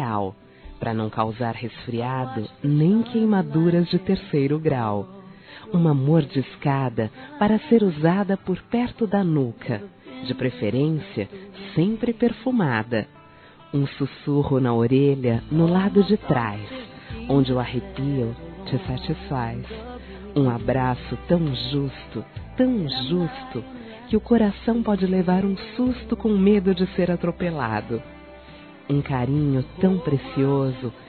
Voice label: TERNURA F; Telemensagens; Românticas

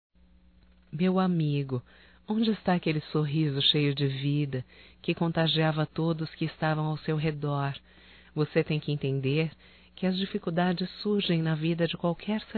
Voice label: Otimismo Amigi Feminino; Telemensagens 2017

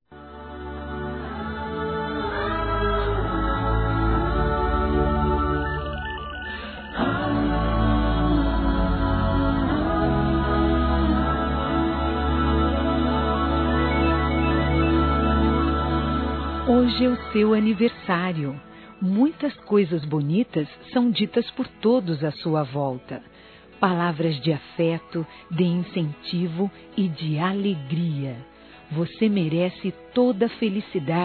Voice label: NEUTRO (F); Aniversários; Aniversários diversos; fem

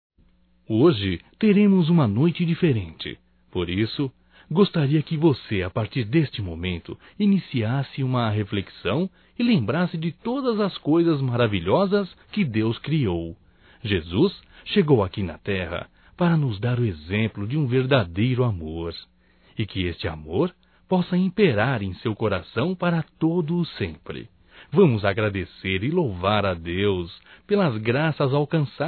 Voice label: NATAL ANO NOVO IRMA, masc; Telemensagens 2017

